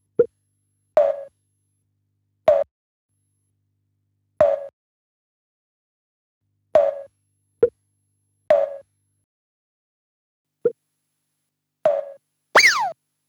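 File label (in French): répondeur; RM Casse brique; call fire emergency; Anti Jingles et Curiosites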